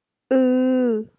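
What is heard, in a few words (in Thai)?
"อือ", Thai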